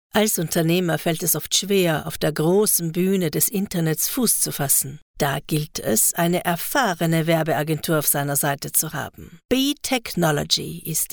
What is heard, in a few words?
German female